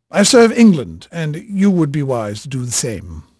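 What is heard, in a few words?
English male, voice; Pirates Of The Caribbean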